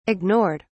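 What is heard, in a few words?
"ignored", English, British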